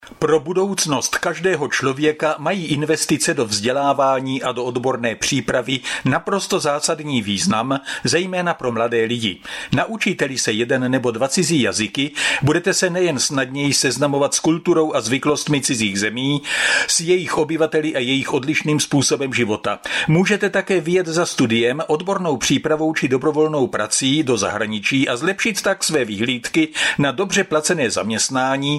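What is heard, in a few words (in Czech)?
1 minute trial dictation, Czech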